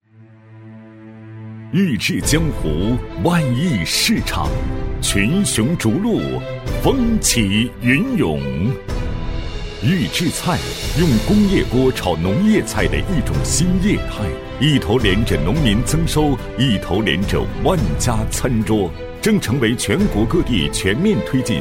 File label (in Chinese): 男, 宣传片旁白, 大气厚重; 男37-大气稳重 沉稳大气; 预制菜产业园